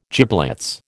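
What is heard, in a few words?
"giblets", American English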